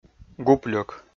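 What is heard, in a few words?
"гупльӧк" Komi, male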